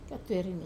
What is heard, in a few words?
"katuerine", wamesa; progressive aspect